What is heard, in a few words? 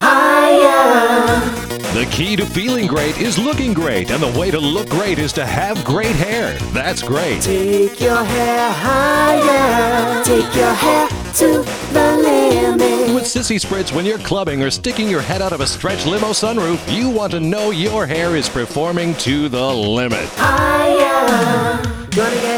Commercial; Volume 2 - Wave 103; Sissy Spritz